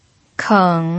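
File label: "keng" third tone, pinyin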